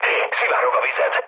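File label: Hungarian damaged water mood low, speech; War Thunder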